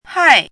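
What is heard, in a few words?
"hài", Mandarin Chinese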